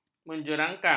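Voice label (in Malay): "menjerangkang", Malay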